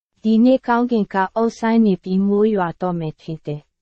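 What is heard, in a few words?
Burmese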